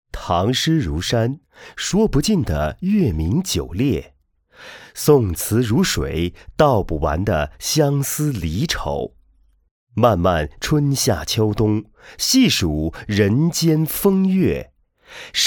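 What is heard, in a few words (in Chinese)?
读诗词预告; 图说天下·文化中国飞花令里读诗词·春0集预告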